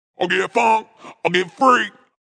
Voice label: Vocal Deep Get Funk Get Freak, Funk vocal loop sample.; 110bpm